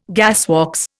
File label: "gasworks", Indian